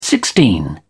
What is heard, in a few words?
"sixteen", English